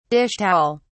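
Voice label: "dish towel", British English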